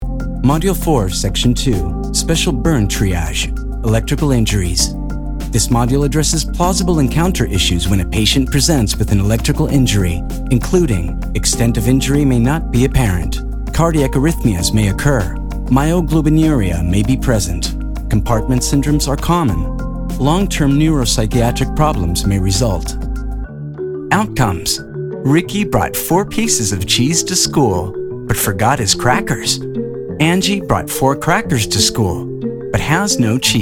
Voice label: E LEARNING, Professional Bilingual Male Voiceover in English & Spanish